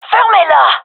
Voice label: request quiet, gendermerie fem; vo

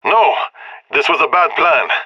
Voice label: scared; Counter Strike; CS:GO; leet epic

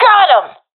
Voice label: i killed enemy, professional fem; vo; agents; Source Model Packs; Counter Strike Model Pack; CS2

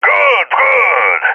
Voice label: cheer

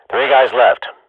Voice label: radio bot kill count; Counter Strike Model Pack; CS:GO

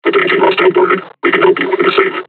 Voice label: taunt, combine charger; Half Life Alyx

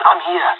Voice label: reporting in, pirate; vo; Counter Strike Model Pack; CS:GO

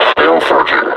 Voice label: reconnoiter search, vo combine suppressor

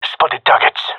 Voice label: sees enemy, gendarmerie male; vo

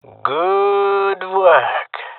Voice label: compliment, jungle male; vo; agents; Source Model Packs; Counter Strike Model Pack; CS2

